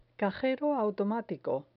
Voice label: "cajero automatico", Spanish